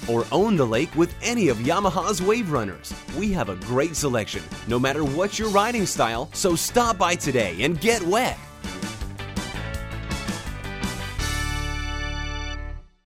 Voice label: Watercraft Sample, on hold message